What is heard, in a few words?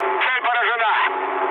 bomb success, mood low, speech ru; War Thunder